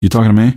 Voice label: answer, male; Garry's Mod; hl2

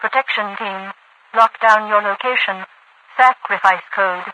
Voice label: lockdown location sacrifice code, radiovoice; Garry's Mod; hl2; overwatch

